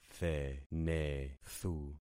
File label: "thenethu", concat syllables; Affixing experiment